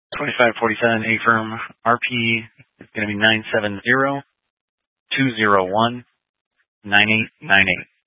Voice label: Sheriff Dispatch, scanner; Mesa County

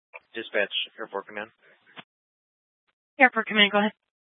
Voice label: Tactical Incidents, scanner

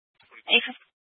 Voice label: Police Department Dispatch

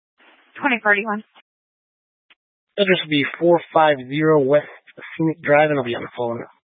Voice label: Mesa County Sheriff Dispatch

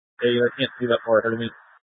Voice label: Mesa County Sheriff Dispatch

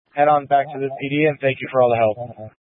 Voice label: Tactical Incidents, scanner

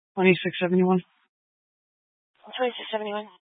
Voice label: Sheriff Dispatch; Mesa County

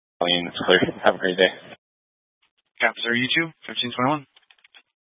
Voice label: Fire Dispatch, scanner

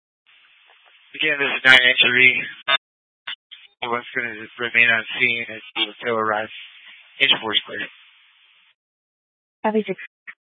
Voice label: Fire Dispatch, scanner

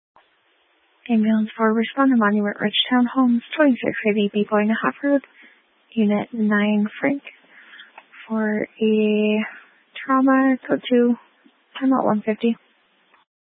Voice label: Fire Dispatch

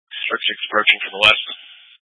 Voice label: Fire Dispatch

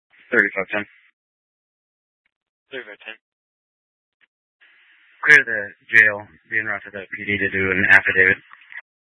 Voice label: Police Dispatch

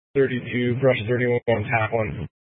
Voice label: Incident Tac, scanner; Incident Tac 1

